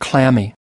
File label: "clammy", us